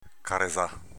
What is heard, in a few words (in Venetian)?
"careza", Veneto; s.f. capezzagna